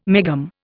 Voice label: "megohm", Indian